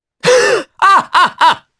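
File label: Vox Happy, Japanese; Dimael; kingsraid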